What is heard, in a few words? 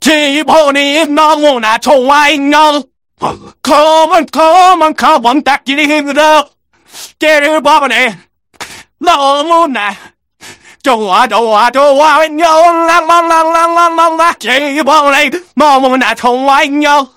scout cheers